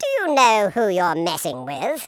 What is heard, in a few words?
leave me alone, Patronising